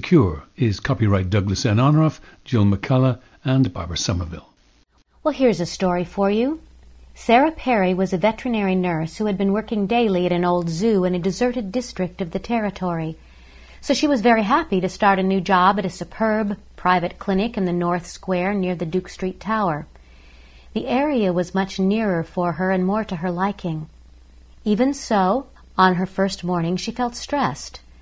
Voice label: General American

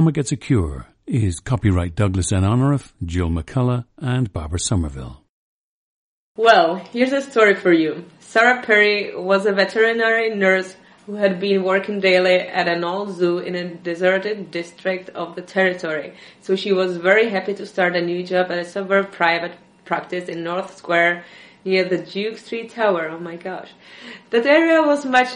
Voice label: czech

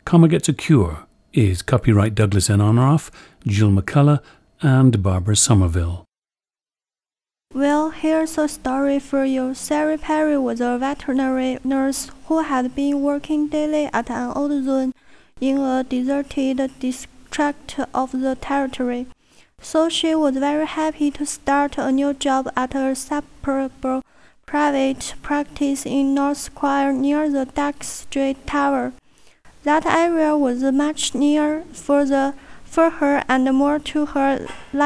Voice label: gansu

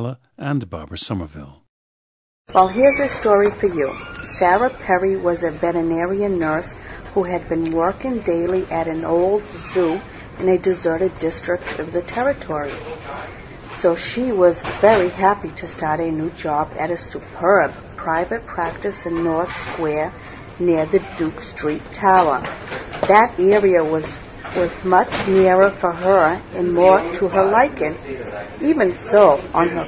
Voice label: massachusetts, dialects

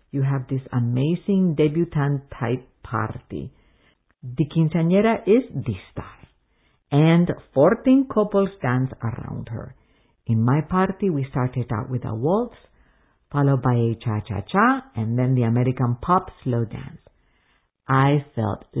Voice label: cuba, dialects

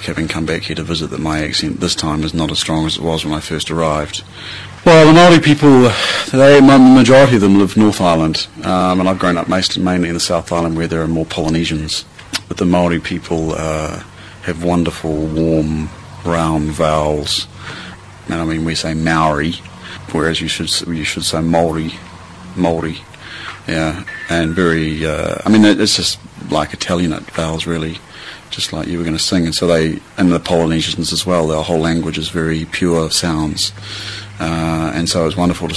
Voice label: New Zealand

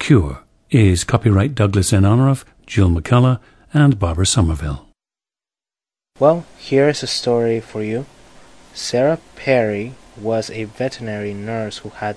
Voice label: venezuela

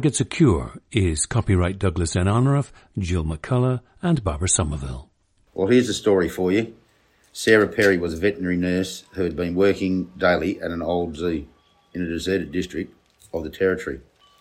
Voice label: australia

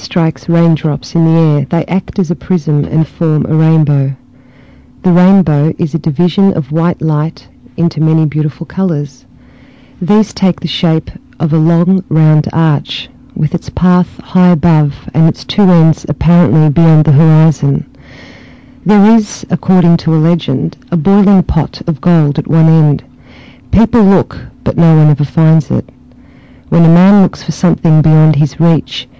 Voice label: australia

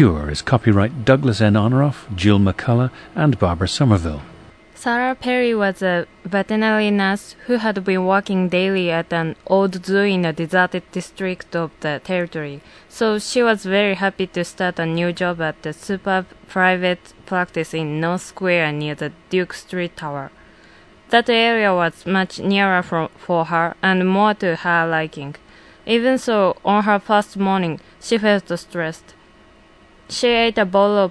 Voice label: japan

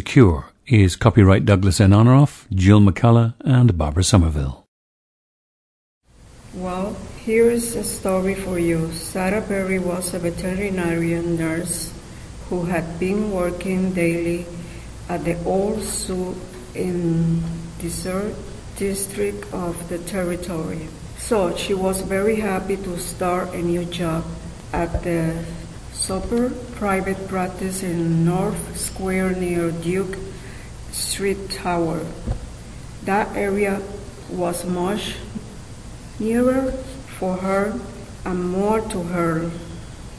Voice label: puerto rico

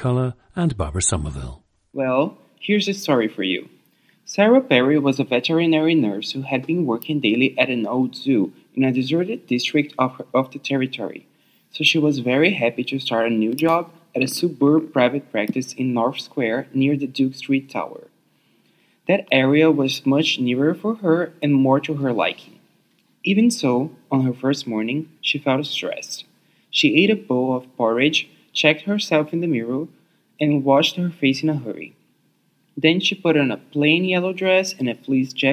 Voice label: brazil, dialects

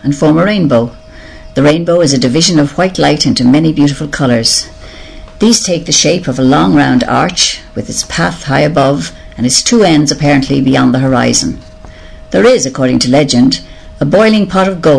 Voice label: ireland, dialects

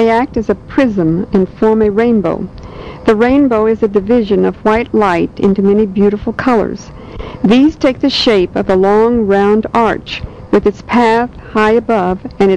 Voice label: missouri, dialects

